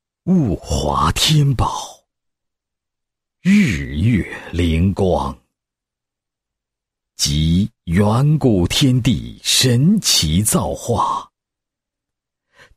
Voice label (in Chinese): 男-宣传广告-中药产品, 配音案例; 物华天宝 汉珍御典